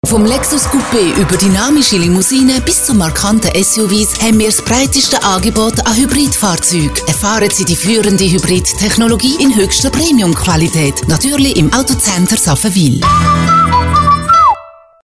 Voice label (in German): dialekt; Spot Lexus Hybrid; Emil Frey